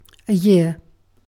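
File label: "year", British English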